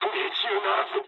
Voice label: bomb failure mood low, speech jp; War Thunder

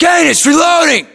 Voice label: inform reloading, US; localized english; battlechatter

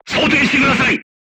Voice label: voice message load, crew tanks japanese

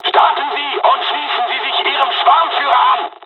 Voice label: takeoff, dialogs german; War Thunder

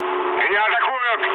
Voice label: aircraft damaged, mood low, speech ru

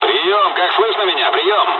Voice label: dialogs russian; War Thunder

